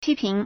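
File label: "pi1ping2", Mandarin Chinese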